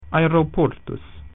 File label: "airport", Latin